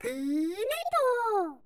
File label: cheer, voice commands; svencoop; Miku